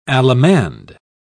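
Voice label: "allemande", British English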